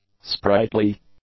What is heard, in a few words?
"sprightly", english; adjectives